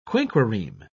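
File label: "quinquereme", English, uk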